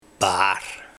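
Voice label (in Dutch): "Baar", dialect; Dwarsstang bij herenfiets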